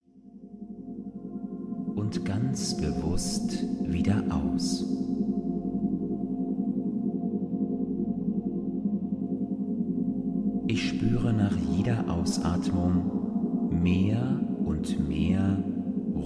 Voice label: Mini Meditation; Work-Life-Balance Meditation